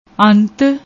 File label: "aunth", Punjabi; ਅੰਤ